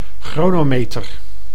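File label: "chronometer", Dutch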